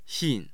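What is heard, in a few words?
"xin4", Mandarin Chinese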